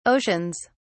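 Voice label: "oceans", British English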